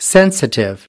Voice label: "sensitive", US